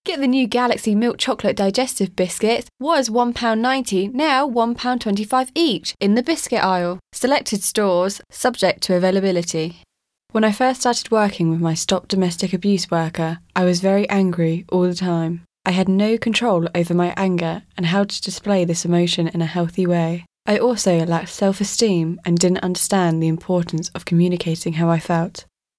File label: daughter 14